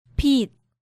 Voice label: "Bit", Korean